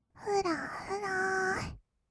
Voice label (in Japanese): "ふらふら～", kawaii voice; 1200 kawaii voice!!; 色々なワンショット